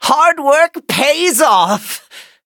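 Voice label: level up, English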